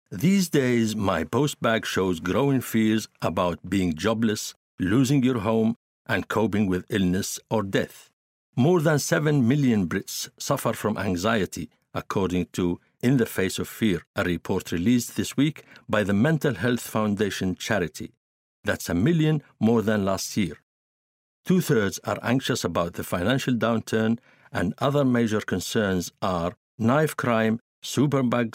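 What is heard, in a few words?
Arabic, Acc EN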